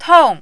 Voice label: "tong4", Mandarin